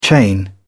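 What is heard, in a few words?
"chain", British English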